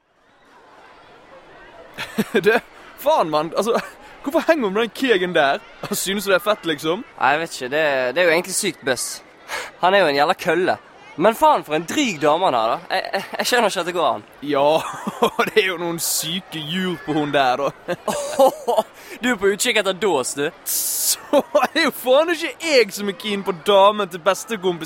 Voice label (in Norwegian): dialog mellom to ungdommer fra askoy